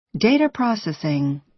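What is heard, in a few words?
"data processing", American English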